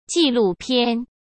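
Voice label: "纪录片" Mandarin Chinese; chinese flashcards; hsk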